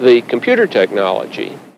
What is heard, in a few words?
LoFi Phrases, LoFi Vocals; Vengeance Samples